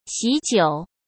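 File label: "喜酒", Mandarin Chinese; chinese flashcards; hsk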